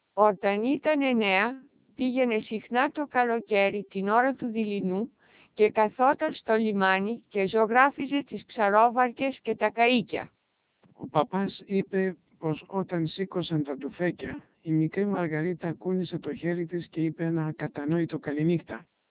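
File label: CODEC2 1600 bps speech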